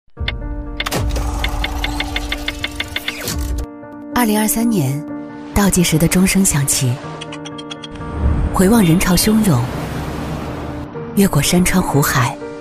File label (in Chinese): 女-走心励志; 年度总结; 宇通客车